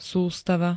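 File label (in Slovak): "sustava", Slovak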